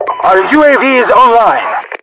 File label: our uav online, RU; mpvoice